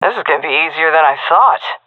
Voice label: round winning, vo fem; agents; Source Model Packs; Counter Strike Model Pack; CS2

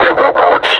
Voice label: announce attack, combine suppressor; alyx; Half Life Alyx

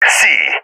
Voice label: code c, balkan; vo; balkan epic

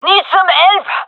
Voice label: request help, gendarmerie fem; vo

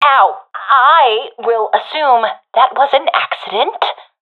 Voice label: taking fire friendly, professional fem; Counter Strike Model Pack; CS:GO

